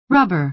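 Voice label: "rubber", American English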